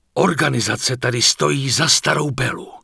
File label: Night Elf Male Standard NPC Farewell; wowpreklad; czwow